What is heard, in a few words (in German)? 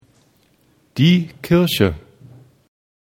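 "kirche", German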